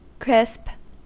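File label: "crisp", US English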